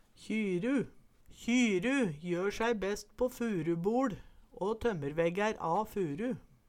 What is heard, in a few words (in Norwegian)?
kjyru, Numedalsmål